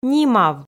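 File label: "нимав", Komi, female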